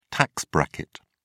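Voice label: "tax bracket", British English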